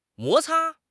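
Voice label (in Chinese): "摩擦", Chinese; HSK6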